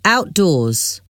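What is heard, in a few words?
"outdoors", English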